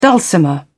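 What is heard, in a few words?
"dulcimer", British English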